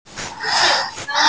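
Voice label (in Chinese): "mo2fang3", Chinese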